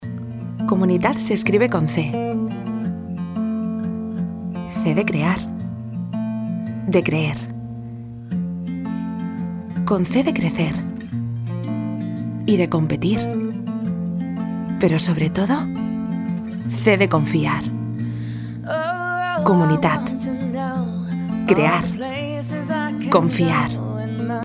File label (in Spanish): locutora spain